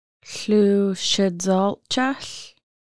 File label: We are going to walk outside, Lushootseed; ɬusədᶻal cəɬ